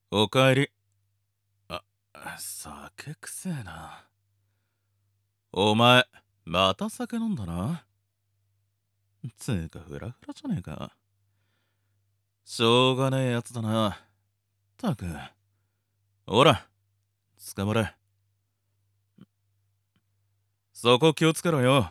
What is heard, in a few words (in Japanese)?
voice 低音; R15 酒飲みの彼女に説教するお口悪い系彼 #5〜7。